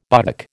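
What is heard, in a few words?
"buttock", American English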